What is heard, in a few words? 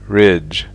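"ridge", English; fonetica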